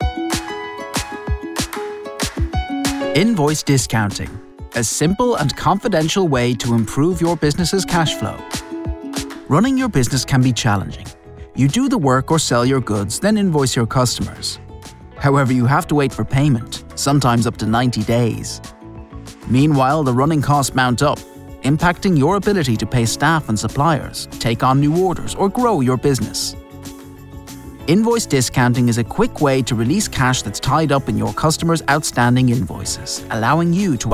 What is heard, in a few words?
male english irish neutral irish accent financial services; irish southern; corporate; bibby financial services ltd